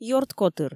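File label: "ёрткотыр", Komi, female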